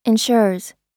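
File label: "insures", US English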